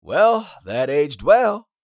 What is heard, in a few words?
Well that aged well meme voice male; social media